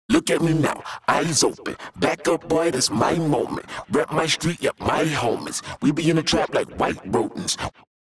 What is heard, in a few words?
vocal double on